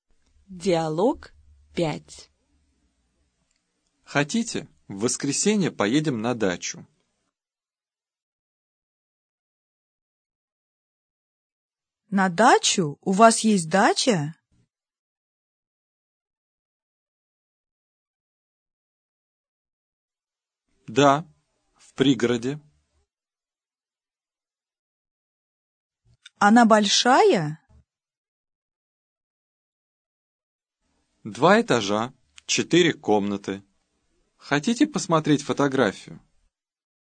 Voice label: dialog, russian